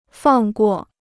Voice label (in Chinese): "放过", Chinese